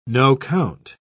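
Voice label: "no-count", British English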